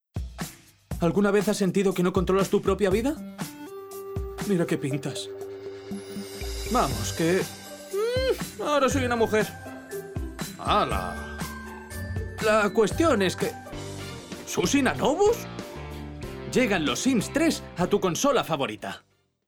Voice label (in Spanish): anuncio; Sims 3